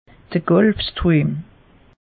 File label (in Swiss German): "gulf stream", alsace; meteo